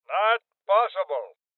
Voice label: Voices Refuse Male Old; rebel cops 2019 gamerip